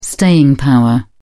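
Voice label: "staying power", British English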